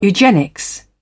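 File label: "eugenics", British English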